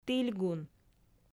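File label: "тільгун", Komi, female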